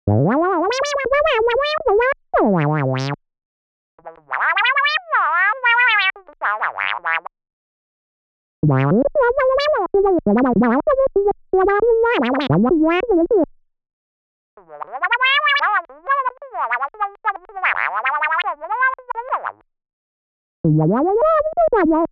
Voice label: phone conversation; Talking Synthesizer